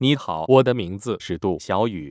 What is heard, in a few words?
male